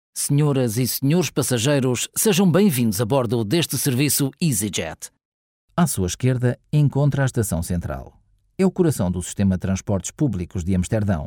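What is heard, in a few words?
portuguese voice elearning, Portuguese Male voiceover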